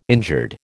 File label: "injured", American